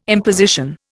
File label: "imposition", Indian